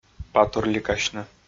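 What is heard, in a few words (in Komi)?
"патурликасьны", Komi, male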